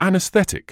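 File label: "anaesthetic", British English; an-uhs-THET-ik; ˌæn.əsˈθet.ɪk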